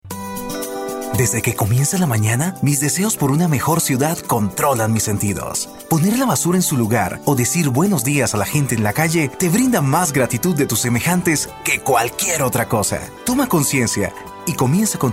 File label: Voice Over